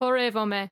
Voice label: female march, greek